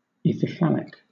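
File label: "ithyphallic", British English; pronunciations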